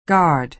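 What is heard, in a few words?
"guard", American English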